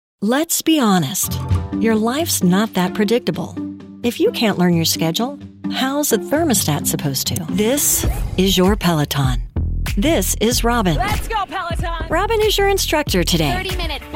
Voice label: Commercial